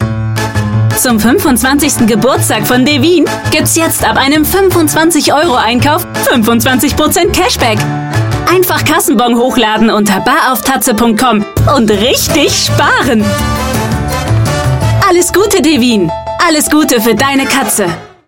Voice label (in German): Werbung shiny